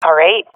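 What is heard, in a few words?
affirmation, swat female; vo; Counter Strike Model Pack; CS:GO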